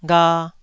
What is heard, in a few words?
"gha2", Taiwanese syllable